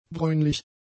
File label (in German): "bräunlich", German; adjectives